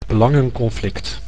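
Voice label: "belangenconflict", Dutch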